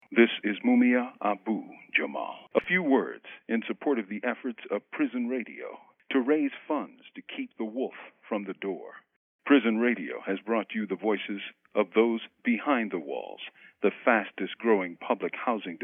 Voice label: Robocall